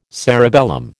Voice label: "cerebellum", American

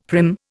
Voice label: "prim", Indian; Dictionary

